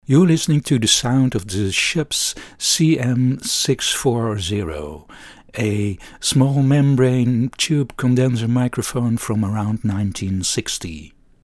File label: Schoeps CM640 sound UK